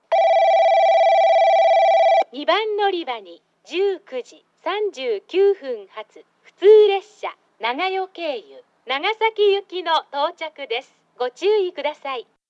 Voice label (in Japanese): 2番のりば接近放送; ハウステンボス; 2番のりば接近放送（普通ワンマン・長与経由 長崎行き）